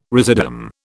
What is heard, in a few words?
"residuum", British